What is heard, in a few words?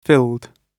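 "filled", British English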